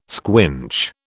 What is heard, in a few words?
"squinch", British